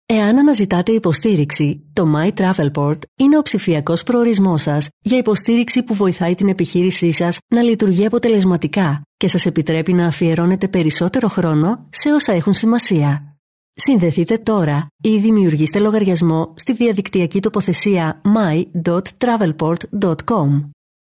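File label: Greek, female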